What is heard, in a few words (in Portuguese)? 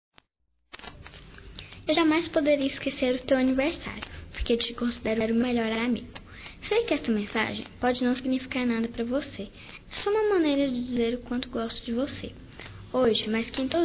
PADRINHO INFANTIL, Telemensagens, Aniversarios, fem; Telemensagens 2017